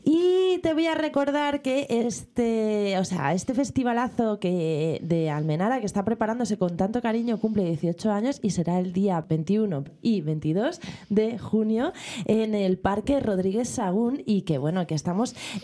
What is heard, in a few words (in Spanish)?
promo corta